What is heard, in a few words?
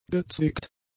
"gezwickt", German; adjectives